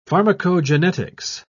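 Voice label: "pharmacogenetics", English, British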